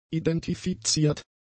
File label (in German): "identifiziert", German; adjectives